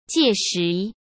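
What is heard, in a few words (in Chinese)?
"届时", Mandarin Chinese; hsk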